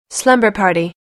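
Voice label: "slumber party", English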